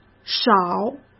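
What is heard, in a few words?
"少", Mandarin Chinese; hsk; chinese-flashcards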